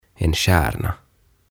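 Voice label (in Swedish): "en stjärna", Swedish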